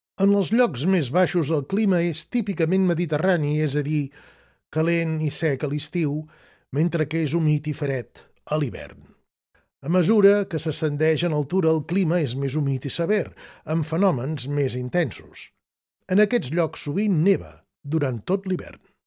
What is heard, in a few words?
voiceover locutor catalan